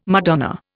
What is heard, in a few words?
"Madonna", Indian